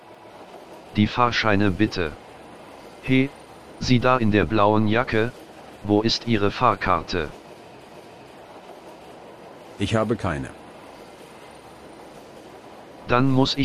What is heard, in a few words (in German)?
Dialog Schwarzfahren